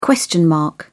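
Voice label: "question mark", British English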